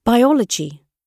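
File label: biology, British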